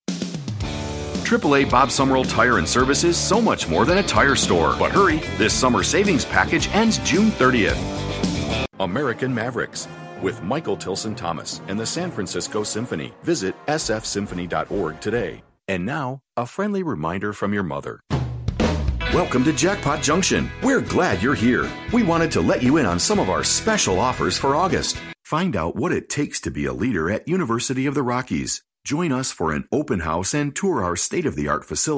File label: Commercial